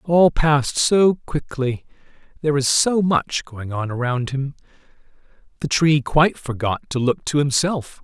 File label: read speech